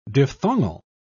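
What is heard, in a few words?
"diphthongal", British English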